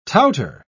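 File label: "touter", British English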